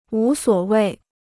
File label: "无所谓", Chinese